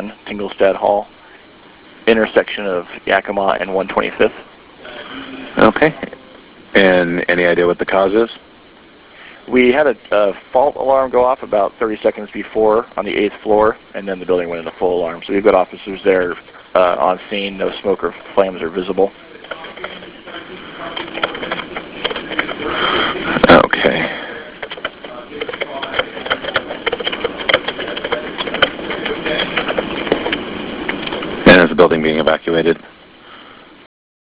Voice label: Fire 911 Call